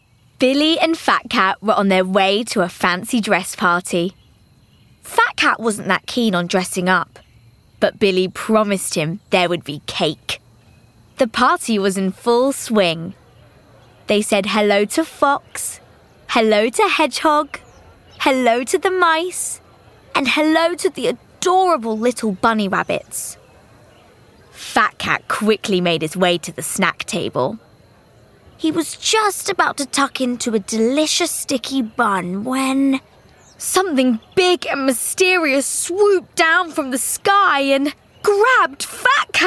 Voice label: audiobook

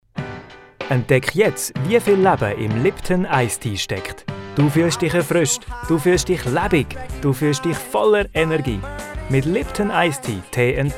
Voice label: Swiss German

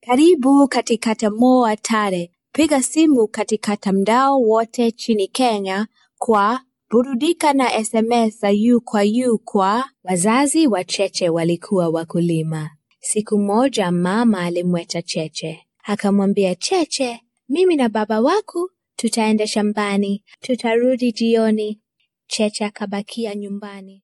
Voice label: Swahili

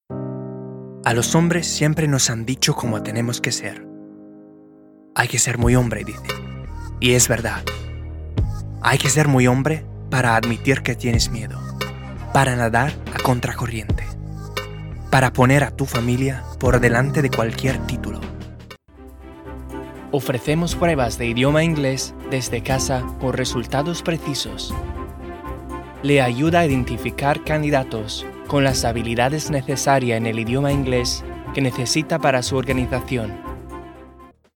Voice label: Spanish

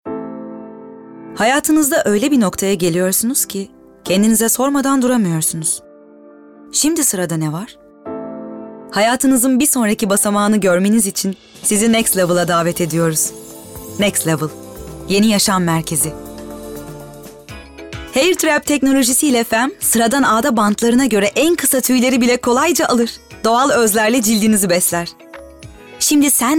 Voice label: Commercial Compilation

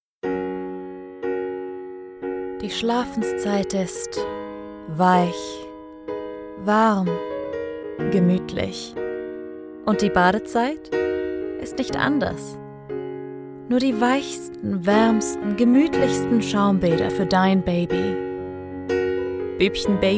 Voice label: German

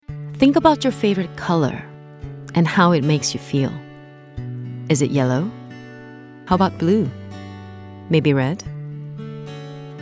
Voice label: COMMERCIAL VOICE

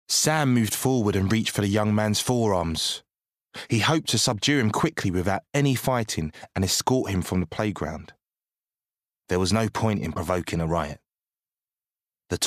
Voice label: Book, Male. London.; 20s-30s; Audiobook

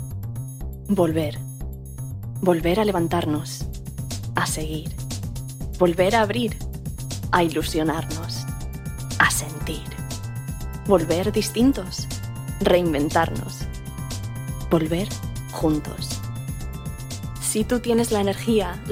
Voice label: Spanish

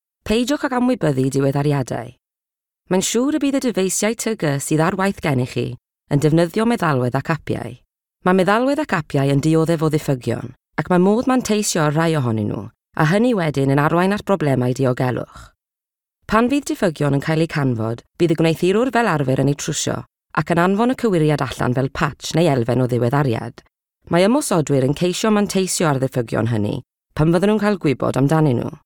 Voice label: Welsh; regional accents

